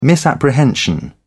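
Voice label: "misapprehension", British English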